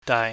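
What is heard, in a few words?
"dai", Cantonese, low level tone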